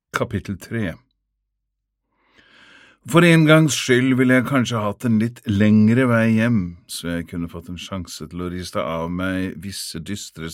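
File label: Norwegian Audiobook Male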